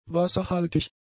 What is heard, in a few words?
"wasserhaltig", German; adjectives